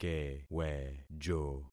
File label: "kewejo", concat syllables; Affixing experiment